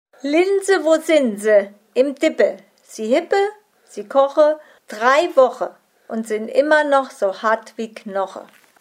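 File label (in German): Kinderreim, O-Ton Hunsrück; linse wo sinn se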